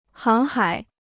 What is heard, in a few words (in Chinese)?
"航海", Chinese